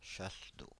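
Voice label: "chasse d’eau", French, Paris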